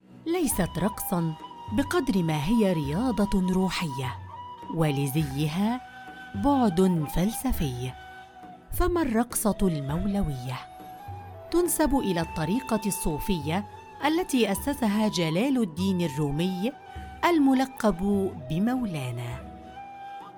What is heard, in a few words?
arabic tv shows